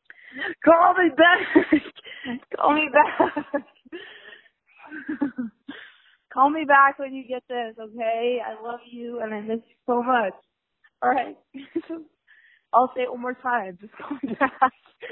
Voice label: Voicemail

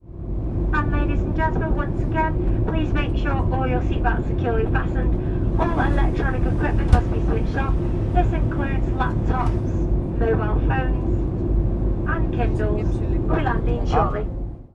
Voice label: Weird Announcement On The Plane

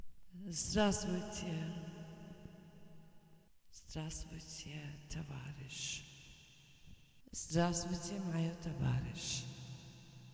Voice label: Rusian Hello I Love You

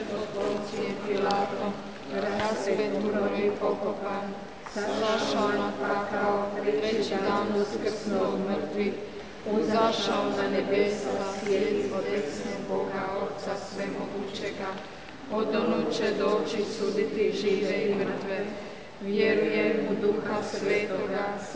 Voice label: Croatia Prayer

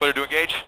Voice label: Voice Male Radio Clear To Engage

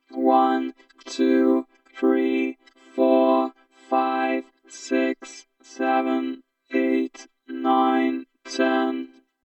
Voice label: Robot Counting Major Drone

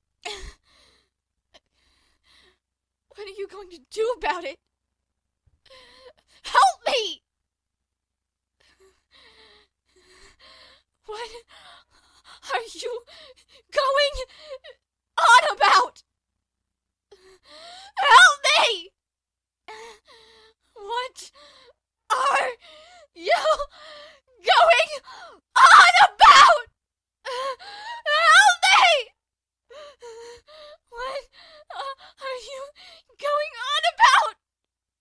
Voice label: Scared Hurt; What Are You Going On About Help Me

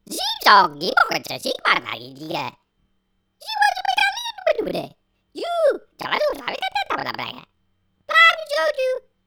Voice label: Alien Voice

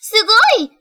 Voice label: "Awesome", Japanese

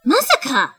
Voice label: "No Way", Japanese

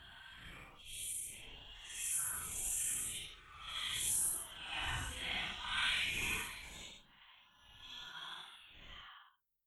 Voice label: Whispers

